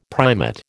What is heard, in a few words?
"primate", American